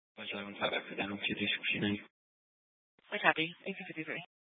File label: Mesa PD Dispatch; TOPAZ Rgnl Wireless Co-op (TRWC); 1 Fiesta Dispatch